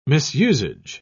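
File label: "misusage", British English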